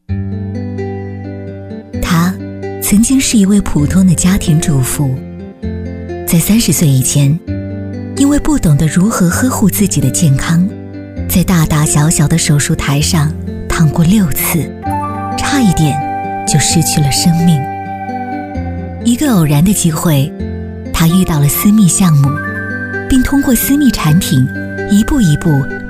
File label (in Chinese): 女-专题解说【医美类解说-抒情温馨】; 磁性甜美; 温柔知性